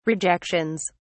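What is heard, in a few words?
"rejections", British English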